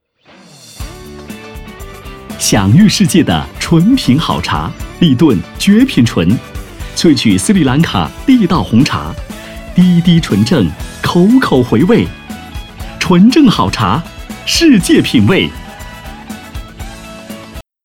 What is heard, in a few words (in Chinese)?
广告—红茶广告（年轻、活力）